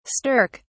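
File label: "stirk", English, British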